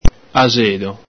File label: "axedo", Veneto